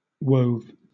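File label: "wove", British English; pronunciations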